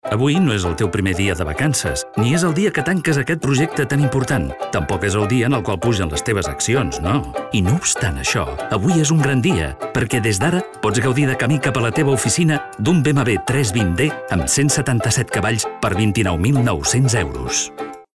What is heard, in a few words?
male catalan car comercial; ideasonora